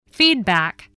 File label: "feedback", English